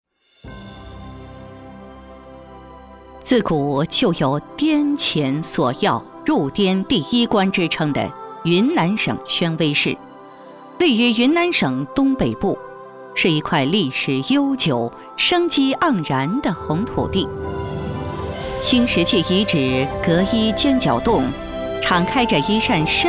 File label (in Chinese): 女 宣传片 文化旅游胜地; 引凤